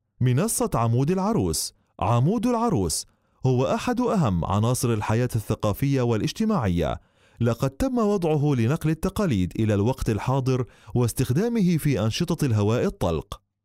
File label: arabic